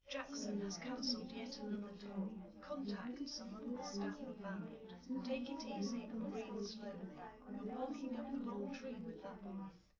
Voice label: female liverec; underdetermined; SiSEC11